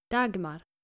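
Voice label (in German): "dagmar", dänische Mädchennamen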